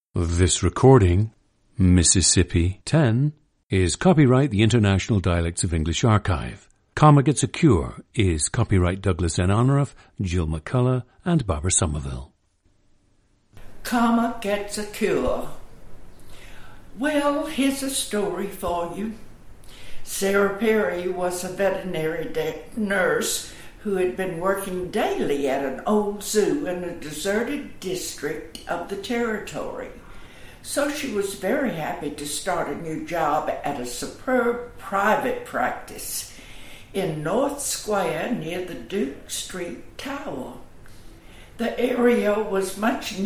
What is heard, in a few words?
Mississippi, International Dialects of English; an 87-year-old woman from Clarksdale